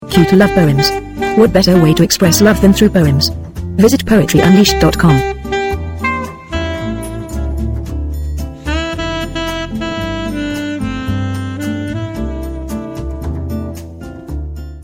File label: cute poem